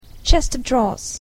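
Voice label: "chest of drawers", English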